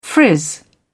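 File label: "frizz", British English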